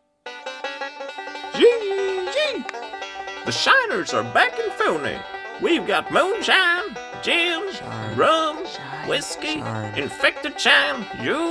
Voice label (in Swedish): Reklam